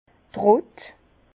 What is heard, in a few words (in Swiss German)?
"trott", Bas Rhin; vin; pressoir